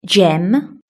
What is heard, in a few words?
"gem", british english